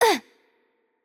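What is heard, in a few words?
hurt light, Russian; bloom minigame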